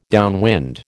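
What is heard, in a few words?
"downwind", American English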